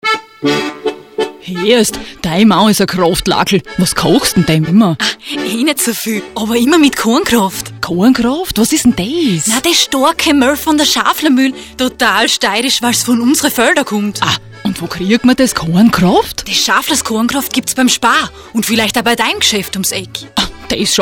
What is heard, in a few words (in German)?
radiowerbung